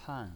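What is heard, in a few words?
"pan" fourth tone, pinyin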